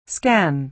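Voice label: "scan", American English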